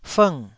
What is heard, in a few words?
"fng4", taiwanese syllable